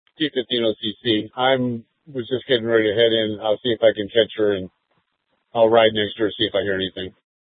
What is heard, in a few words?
Public Transit System Light Rail Ops, scanner